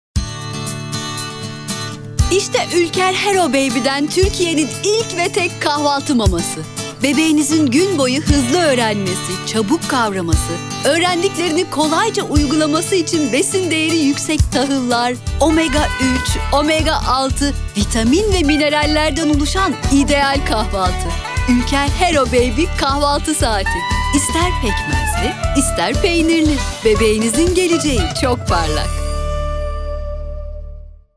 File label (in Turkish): enerjik tempolu, seslendirme